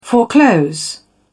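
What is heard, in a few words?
"foreclose", en, uk British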